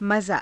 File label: "mazaa", Hindi